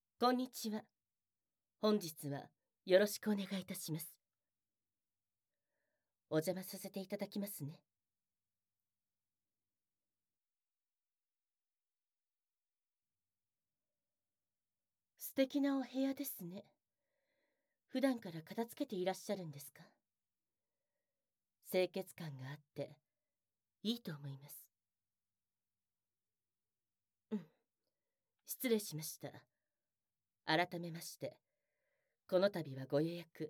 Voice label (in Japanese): 洗体マッサージ（効果音なし）; 施術の前に…